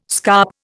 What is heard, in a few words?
"scarp", Indian